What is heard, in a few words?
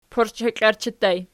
"porcheklarchi", Archi